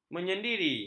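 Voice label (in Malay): "menyendiri", Malay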